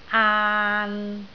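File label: "aan3", Cantonese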